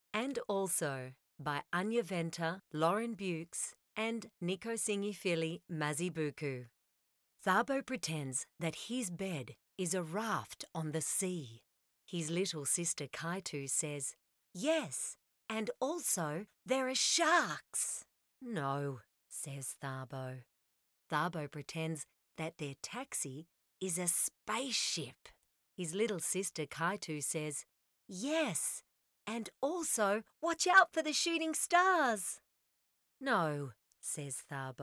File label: AudioBook